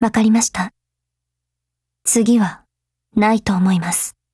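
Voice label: FIX, Japanese; M4A1Mod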